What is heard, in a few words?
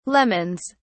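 "lemons", British English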